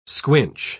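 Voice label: "squinch", English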